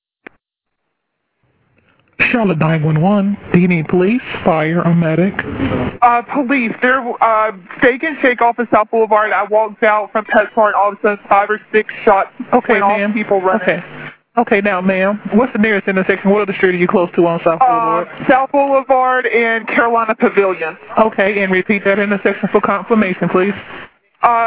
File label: 911 CALL; S. Boulevard Steak ‘n Shake Shooting